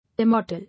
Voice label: "Immortal", English

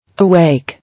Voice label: "Awake", English

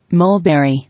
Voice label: "Mulberry", English

